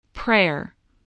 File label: "prayer", voice, spelling